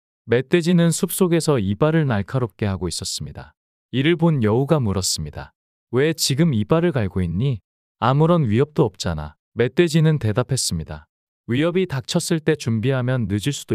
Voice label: 이야기 남자; 멧돼지와 여우

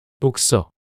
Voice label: "독서" 단어 남자

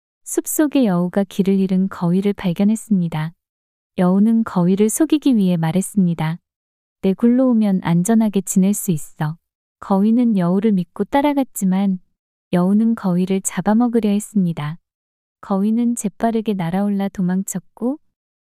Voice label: 여우와 거위 이야기 여자

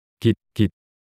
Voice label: 깃깃 낱소리 남자

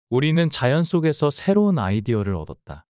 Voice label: 우리는 자연 속에서 새로운 아이디어를 얻었다 문장 남자

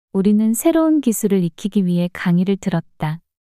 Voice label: 우리는 새로운 기술을 익히기 위해 강의를 들었다 문장 여자

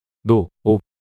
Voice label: "노오" 낱소리 남자

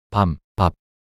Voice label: 밤밥 낱소리 남자

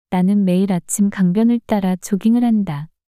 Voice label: 나는 매일 아침 강변을 따라 조깅을 한다 문장 여자

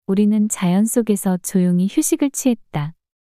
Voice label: 우리는 자연 속에서 조용히 휴식을 취했다 문장 여자

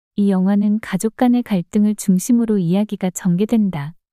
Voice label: 이 영화는 가족 간의 갈등을 중심으로 이야기가 전개된다 문장 여자

